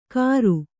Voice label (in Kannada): "ಖರು", Kannada